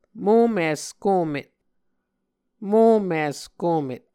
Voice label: "momis komet", Muscogee

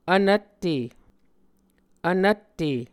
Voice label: "vnvtte", Muscogee

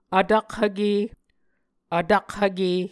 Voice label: "vtakhvke", Muscogee

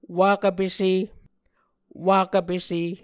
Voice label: "wakv pese", Muscogee

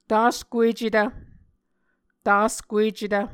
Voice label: "taskuecetv", Muscogee

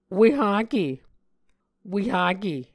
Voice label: "wehake", Muscogee

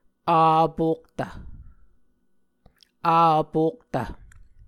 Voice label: "avpoktv", Muscogee

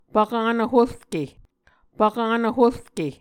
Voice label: "pvkanv horke", Muscogee

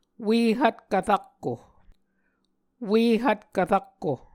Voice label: "ue hvtkv rakko", Muscogee

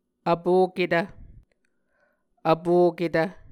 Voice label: "vpoketv", muscogee

